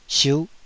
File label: "siuh5", Taiwanese